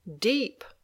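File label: "deep", English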